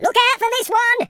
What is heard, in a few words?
incoming, Footy Fan speech